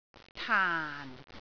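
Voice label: "taan4", Cantonese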